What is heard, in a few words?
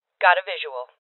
Voices Enemy Spotted Female; rebel cops 2019 gamerip